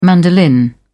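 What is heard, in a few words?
"mandolin", British English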